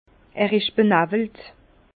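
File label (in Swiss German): "benawelt", Alsace Bas Rhin; vin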